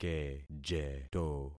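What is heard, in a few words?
"kejeto", concatenated syllables; Affixing experiment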